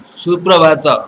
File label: good morning, odia